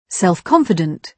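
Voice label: "self-confident", British English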